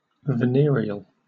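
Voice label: "venereal", British English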